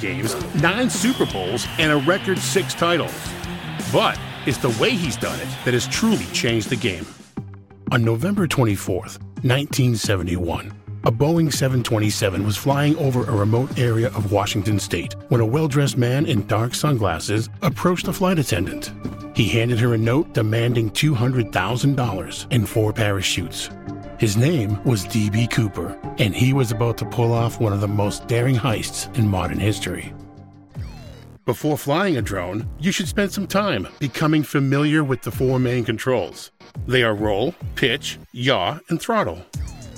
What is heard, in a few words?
english american narration